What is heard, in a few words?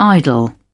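"idle", British English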